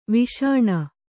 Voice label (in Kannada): "ವಿಷ್ಣು", Kannada